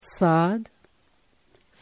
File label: sad